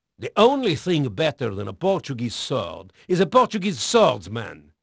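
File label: Portuguese male, voice; Pirates Of The Caribbean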